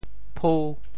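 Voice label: "phu5", Teochew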